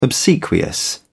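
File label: "obsequious", British English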